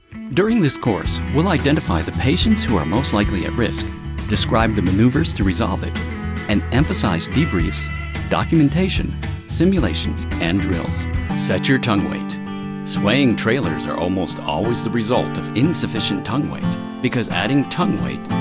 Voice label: eLearning